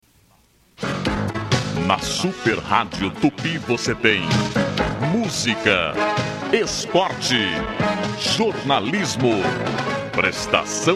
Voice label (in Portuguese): Vinheta; Radio Tupi; 1150 kHz